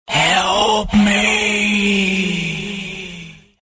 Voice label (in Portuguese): voz macabra help me